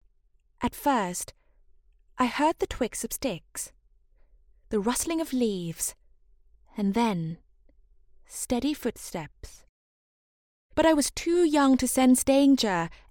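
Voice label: Thai Accented English; GoLocalise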